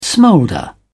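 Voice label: "smolder", British English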